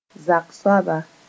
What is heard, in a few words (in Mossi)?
"zak soaba", moore